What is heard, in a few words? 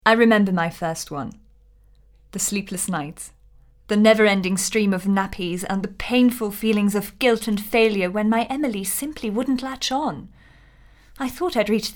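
N-Protect, advert clip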